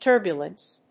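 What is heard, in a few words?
"turbulence", English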